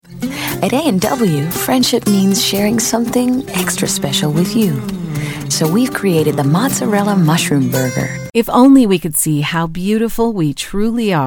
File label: Commercial